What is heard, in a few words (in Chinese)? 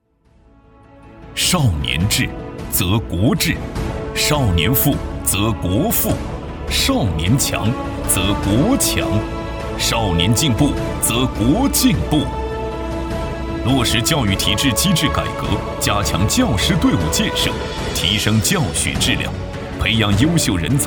男 专题; 教育